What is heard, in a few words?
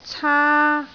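"caa1", Cantonese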